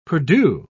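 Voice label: "perdue", British English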